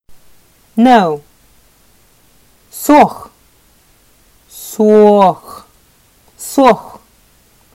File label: Sakha "No"